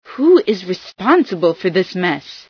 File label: who responsible, female